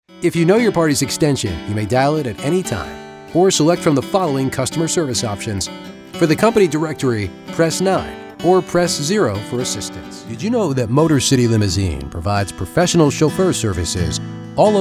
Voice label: Telephony IVR

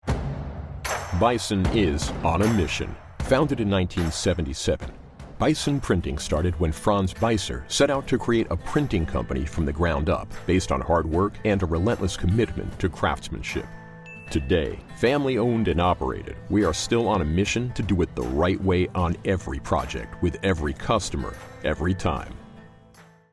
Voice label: Corp Narration; Bison Printing

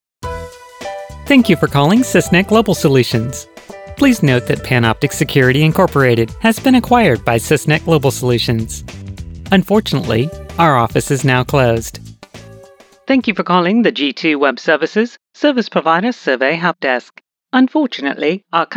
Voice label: Telephony

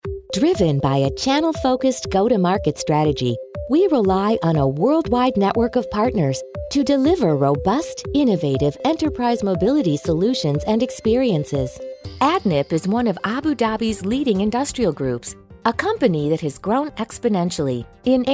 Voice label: Narration

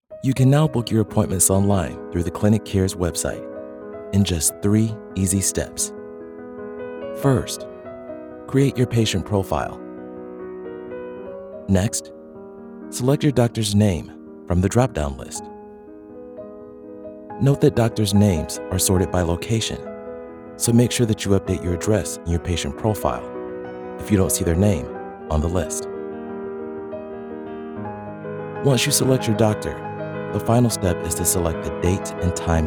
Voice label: Corporate Narration

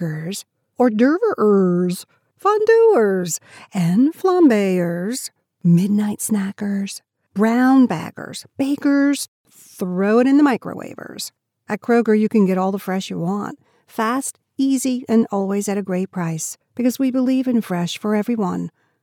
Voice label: Recorded In My Home Studio

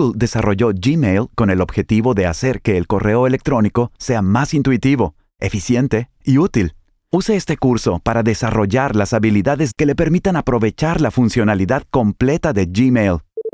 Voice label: Spanish e-learning

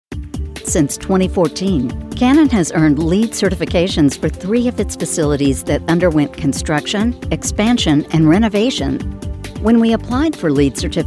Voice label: Corporate

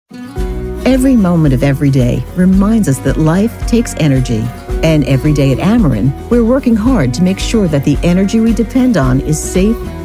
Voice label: Corporate Narration

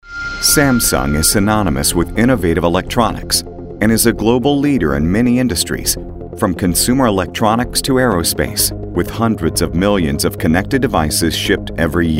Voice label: Corporate Narration

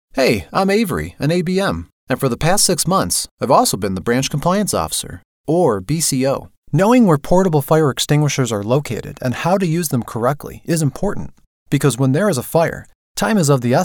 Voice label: Explainer and eLearning

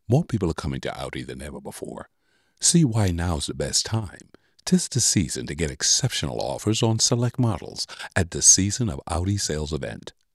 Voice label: Santa VO; Audi

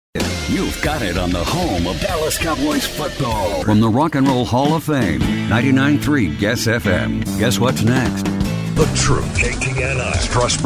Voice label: ALL FORMAT RADIO

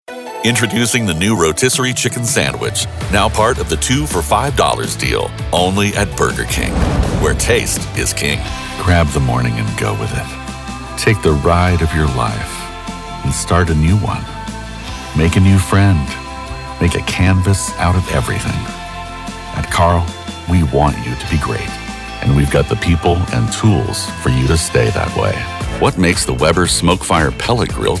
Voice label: Commercial Demo

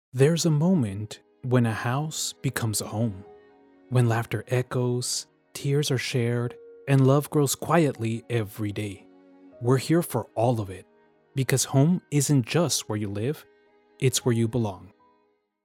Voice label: Commercial Warm Heartfelt

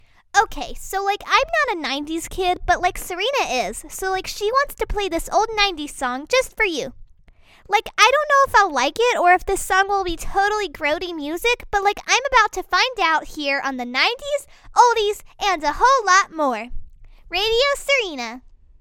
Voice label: spot

